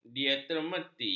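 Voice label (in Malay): "diatermi", Malay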